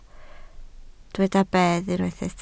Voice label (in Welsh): "bedd", Welsh